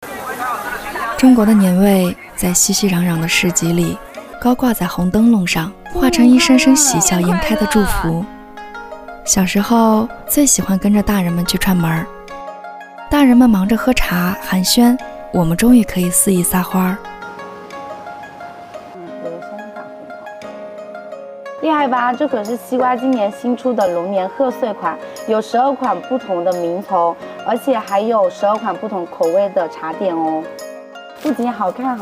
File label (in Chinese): 女 素人叙述